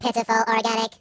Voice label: Coward, Robot; hedgewars